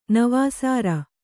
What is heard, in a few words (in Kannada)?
"ನವಾಸಾರ", Kannada